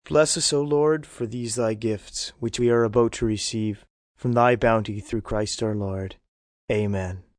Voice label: Saying Grace, male - grace before meals, Amen, (human voice speaking); Church; praryer; Churches; VoiceBox